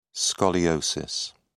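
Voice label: "scoliosis", British English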